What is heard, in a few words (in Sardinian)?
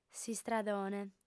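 "s'istradone", female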